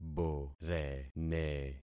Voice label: "borene", concat syllables; Affixing experiment